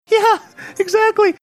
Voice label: saying yeah exactly high pitched voice

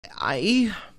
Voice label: stretched exaggerated i

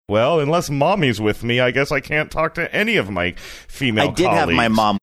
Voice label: saying well unless mommy's with me funny

